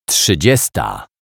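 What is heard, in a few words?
"trzydziesta", Polish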